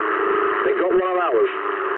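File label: aircraft destroyed mood low, speech English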